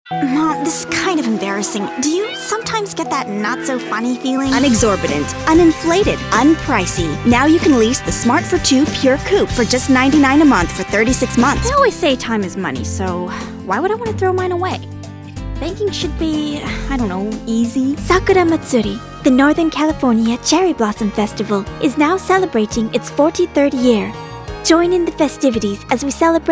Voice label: Commercial